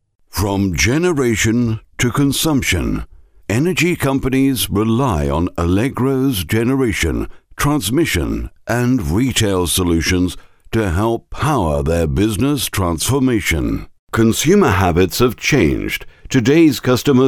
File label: corporate uk voiceover